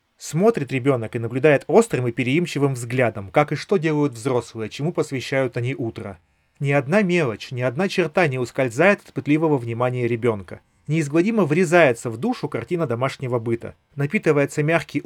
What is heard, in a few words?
Recorder; realme buds air 8 pro